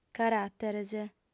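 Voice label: "caràteres", female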